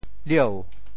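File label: "lieu2", Teochew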